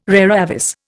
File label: "rara avis", Indian